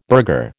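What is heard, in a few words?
"burgher", American English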